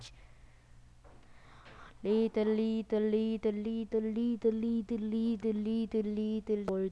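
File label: "lead", spoken word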